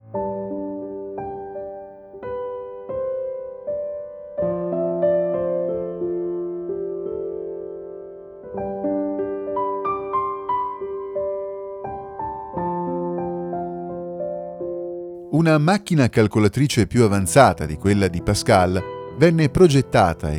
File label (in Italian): Italiano documentario